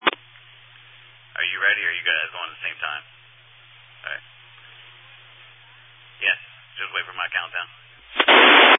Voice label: Family Radio Service (FRS)